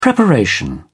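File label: "preparation", British English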